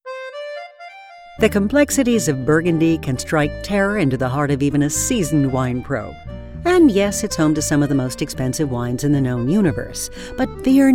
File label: eLearning Explainer